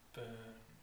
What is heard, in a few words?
"burn", pre-nasal